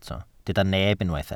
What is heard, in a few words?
"neb", Welsh